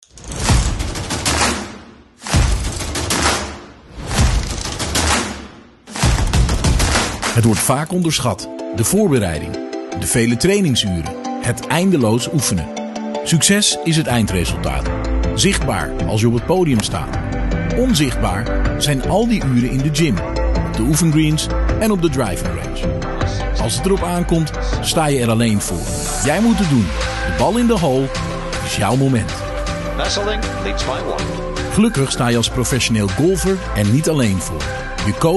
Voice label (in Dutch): Voice-over voorbeeld